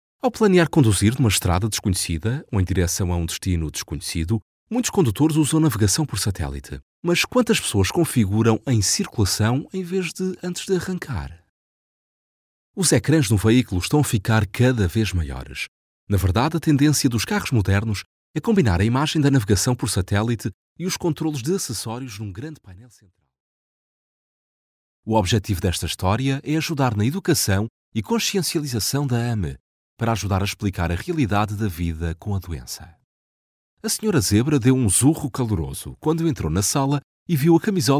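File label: European Portuguese e-learning; GoLocalise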